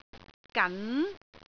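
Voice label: "gan2", Cantonese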